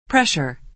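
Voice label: "pressure", American English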